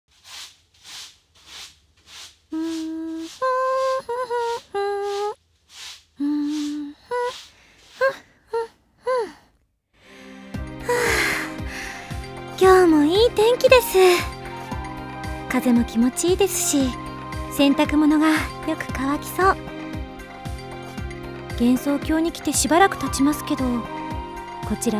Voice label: ボイスドラマ; 不穏“天から天子”

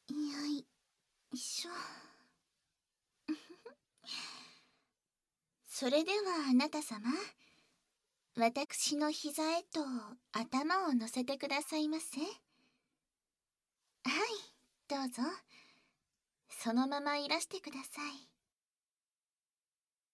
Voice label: 耳かき～両耳～ (効果音なし); 夢見亭伝統の耳かき～両耳～

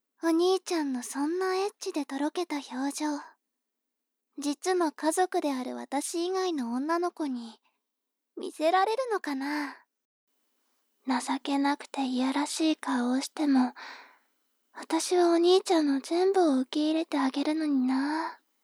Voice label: 妹 台詞

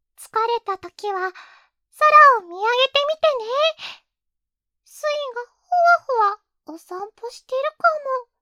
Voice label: 励ましボイス

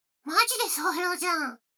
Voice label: 女の子台詞

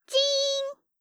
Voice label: "ちーん", 擬音

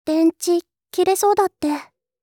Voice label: 電池、切れそうだって, システムボイス

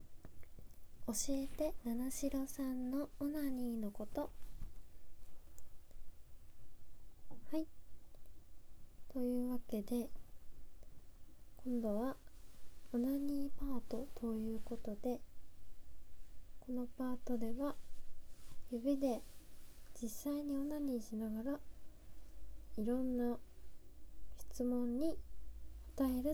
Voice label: 指示あり実演 カウント ステレオ

